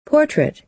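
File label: "portrait", American English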